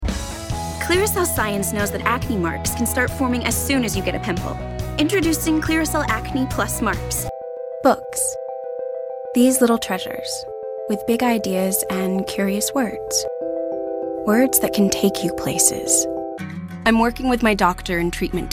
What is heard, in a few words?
Commercial